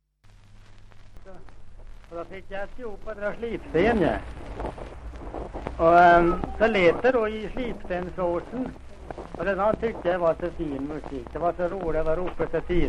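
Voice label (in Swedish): Hede Härjedalen, dialekter; Folkminnen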